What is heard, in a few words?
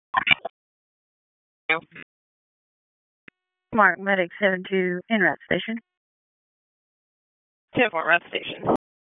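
EMS Dispatch, p25; wake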